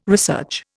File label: "research", Indian